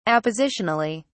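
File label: "appositionally", British English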